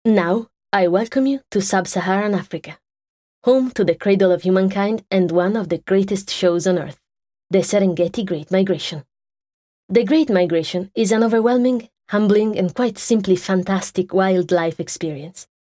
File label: ENGLISH